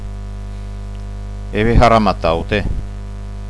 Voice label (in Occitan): "haram atau", Gascon